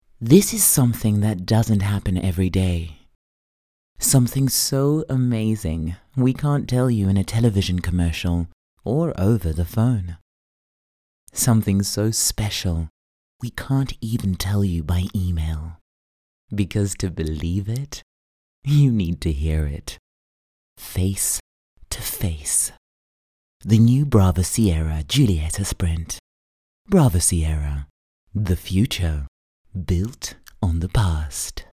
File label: English Dramatic; OSM Talent